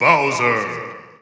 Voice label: English Announcer; SSB4 SSBU